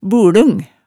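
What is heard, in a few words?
"bulung", Hemsedal dialect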